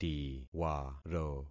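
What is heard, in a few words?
"tiwaro", concatenated syllables; Affixing experiment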